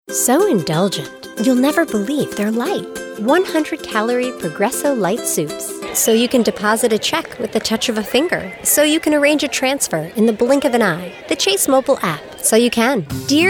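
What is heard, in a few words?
American commercials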